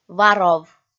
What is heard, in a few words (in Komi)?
"варов" kpv f